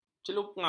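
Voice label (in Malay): "celungap", Malay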